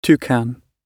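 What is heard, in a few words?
"toucan", British English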